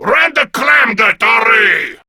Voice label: Attack, Male